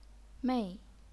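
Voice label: "mei", fourth tone, chinese pinyin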